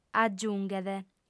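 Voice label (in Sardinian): "agiunghet", lingua sarda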